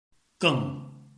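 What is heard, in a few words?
"geng", Chinese, tone 4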